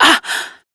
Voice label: Pain, Female; Warframe; Railjack; Steel Meridian

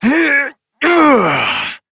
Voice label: Adult, en; Warframe; Duviri; Cinematics; Steal Horse

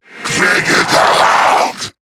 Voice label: Player Spotted, en; Zariman Wraith

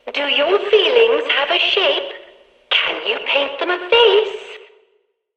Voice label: Background Announcements

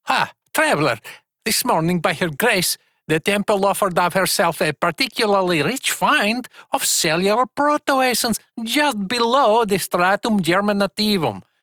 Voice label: Ost Male en; Cetus

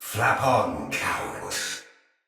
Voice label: Sight Lost Shout Thawtide Hunter; Barks Battle

